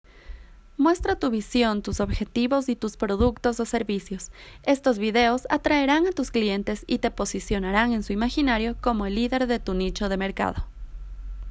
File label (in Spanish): Corporativo